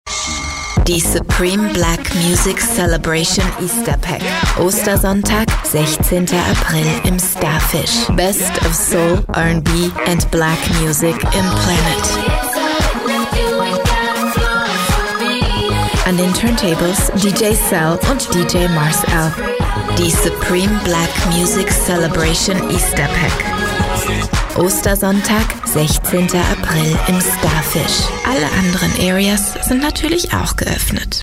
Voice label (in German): Werbung